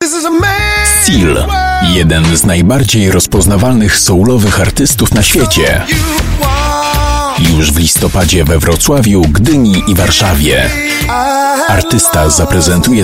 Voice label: Voice Sample Polish Male